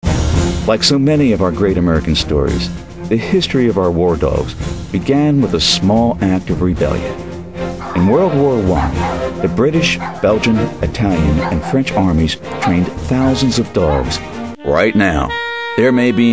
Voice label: narrations